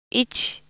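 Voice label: Japanese; UT-ML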